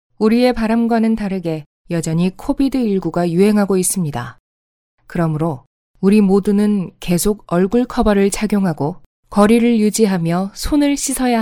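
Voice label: Korean, female, elearning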